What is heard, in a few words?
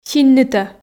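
"синнытӧ", Komi, female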